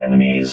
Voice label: "enemies", robot vox